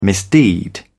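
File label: "misdeed", British English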